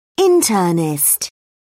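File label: "internist", British English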